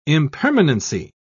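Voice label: "impermanency", British English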